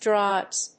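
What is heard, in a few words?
"drives", English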